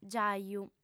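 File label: "giaju", female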